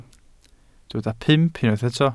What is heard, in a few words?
"pump", Welsh